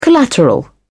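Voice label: "collateral", British English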